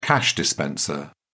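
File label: "cash dispenser", British English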